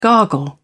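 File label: "gargle", British English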